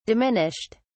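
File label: "diminished", British English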